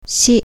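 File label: "shi", Japanese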